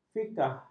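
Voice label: "fikah", Malay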